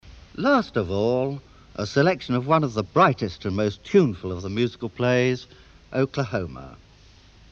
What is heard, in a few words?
Announcement